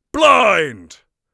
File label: blind, mage voice; Mage Voice Pack